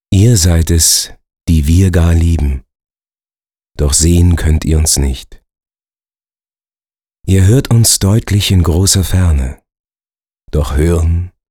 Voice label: poetisch, maenner; engelszungen

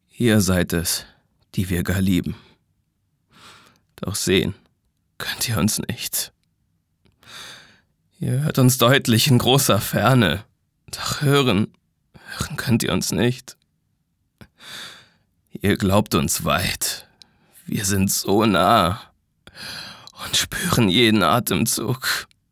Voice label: traurig

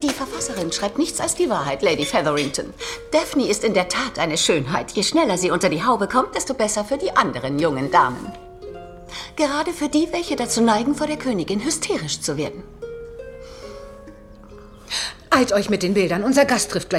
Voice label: Synchron, frauen; Bridgerton

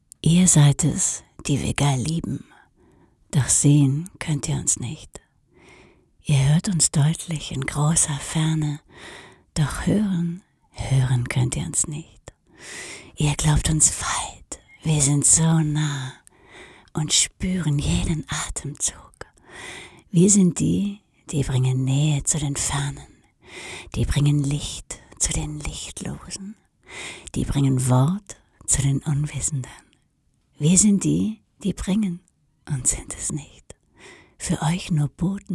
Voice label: erotisch, frauen

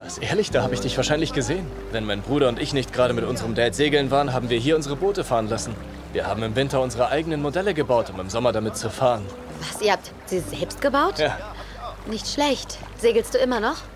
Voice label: Synchron, maenner; Good Sam

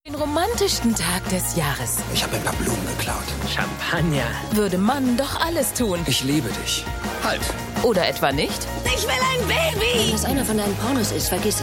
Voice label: Trailer, frauen; Valentinstag

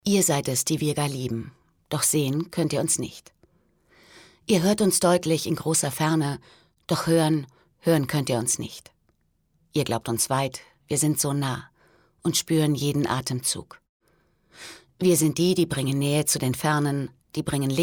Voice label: sachlich, frauen

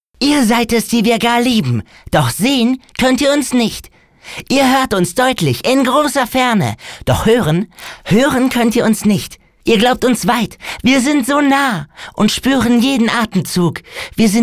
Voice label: charge, maenner

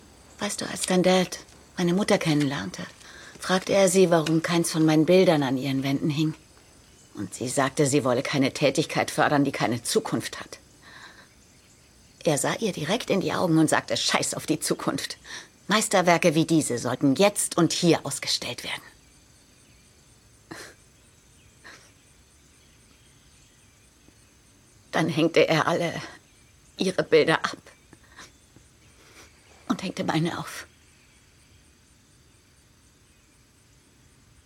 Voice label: Synchron, frauen; Schloss aus Glas

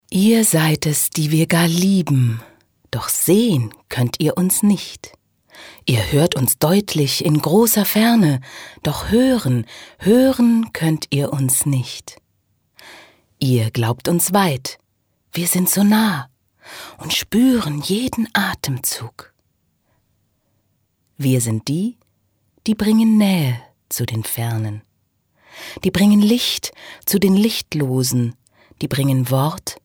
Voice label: poetisch, frauen

